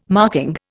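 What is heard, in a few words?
"marking", Indian English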